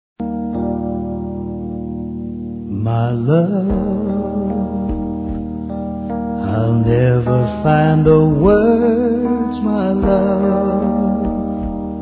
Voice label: ANO NOVO ROMANTIC M; Telemensagens; Natal e Ano novo; masc

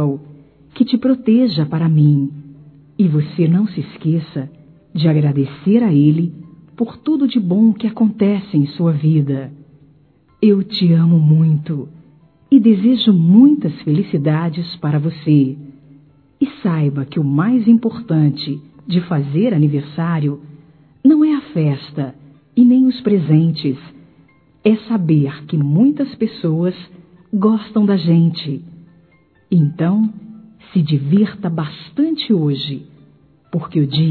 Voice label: Filha Criança Feminino; Aniversários; Aniv Familiar

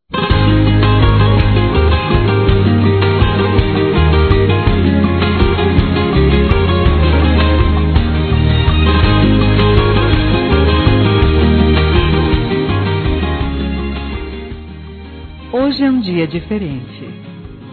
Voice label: ANIV. GERAL F

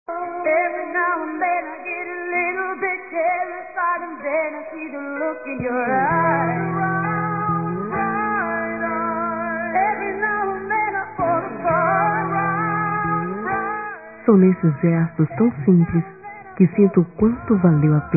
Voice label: AGRADEC DE MENSAGEM F, Dia Dos namorados, fem; Telemensagens 2017

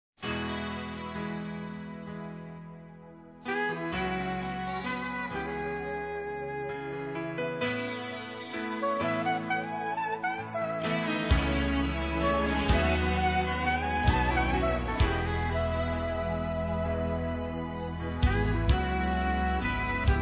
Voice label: Mensagem Para Pastor Masculino, Gospel

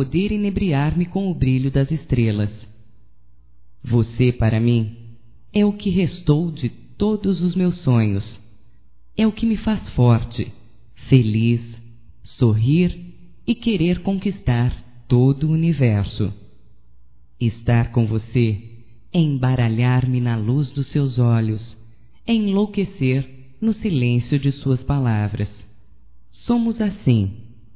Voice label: PENSAR EM VOCE (F); Românticas

